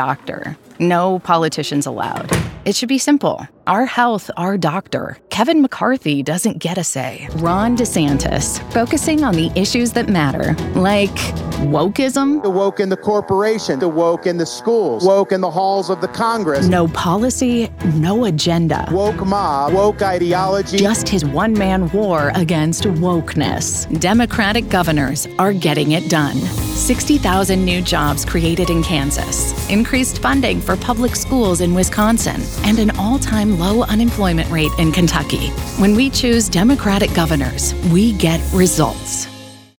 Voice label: english american political ads